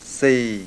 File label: low level modal, mpi